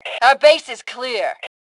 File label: Base Clear, Female; T2 Female 03